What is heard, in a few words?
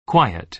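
"quiet", American English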